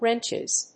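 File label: "wrenches", English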